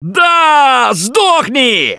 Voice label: Battle Cry; Gambler

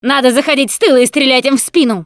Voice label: see armored, Russian; Producer

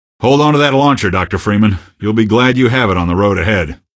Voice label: citizen, male; Garry's Mod; hl2; odessa

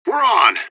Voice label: radio bot reponse attacking, vo fbi; fbihrt

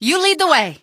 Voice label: lead the way, female; npc; Garry's Mod; hl2

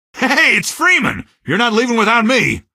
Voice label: squad reinforce single, male; Garry's Mod; hl2

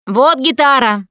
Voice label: melee weapons, russian, Survivor Voice Producer

